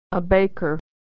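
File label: "baker", American English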